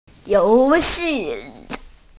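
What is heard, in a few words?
"you2xi4", Mandarin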